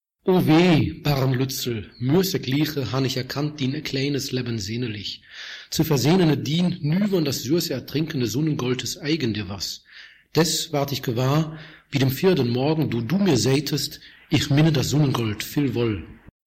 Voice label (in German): Deutsch Ulm